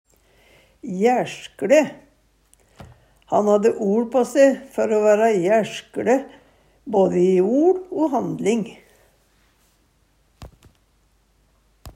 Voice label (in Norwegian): jæRskLe, Numedalsmål; Adjektiv